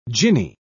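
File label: "djinni", British English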